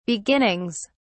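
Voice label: "beginnings", en, uk British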